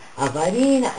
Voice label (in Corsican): "a farina", lingua